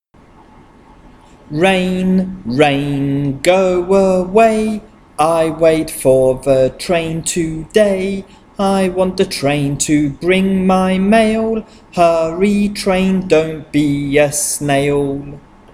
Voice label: medial long vowels chant ai